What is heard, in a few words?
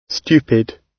"stupid", gb